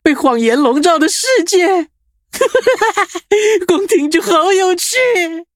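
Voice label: level up, Traditional Chinese; cookie run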